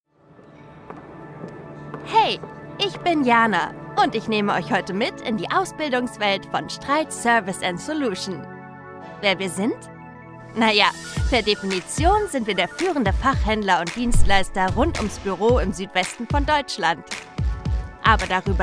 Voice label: hoerprobe imagefilm kurz

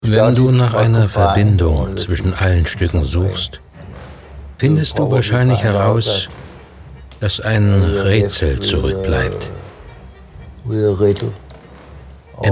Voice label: doku; Sprecher in Berlin, Hamburg, Leipzig; Muttersprache Deutsch

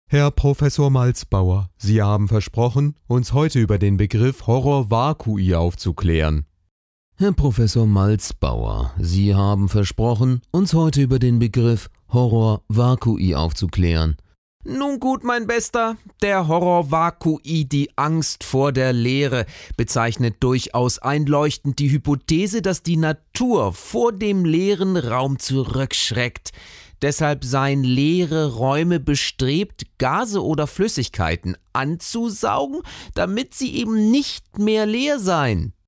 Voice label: stimmprobe charaktere

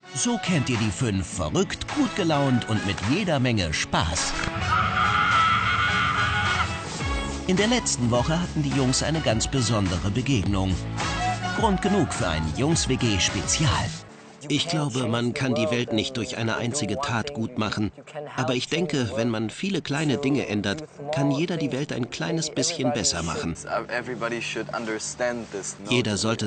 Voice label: dokusoap off overvoice; jungs wg

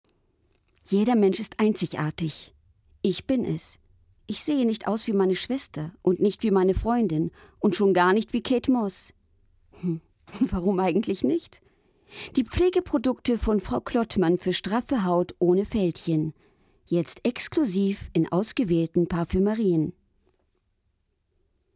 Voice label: werbung

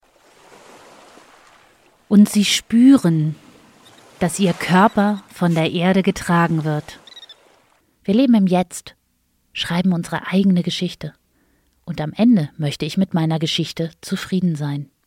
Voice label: Arbeitsproben Meditation

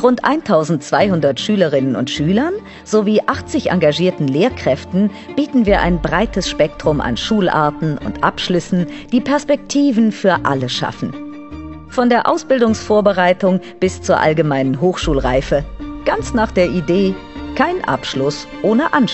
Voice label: imagefilm schule

